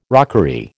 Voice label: "rockery", American